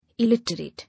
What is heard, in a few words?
"Illiterate", English